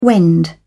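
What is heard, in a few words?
"wend", British English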